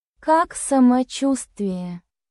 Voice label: Russian, Russian phrases for everyday conversation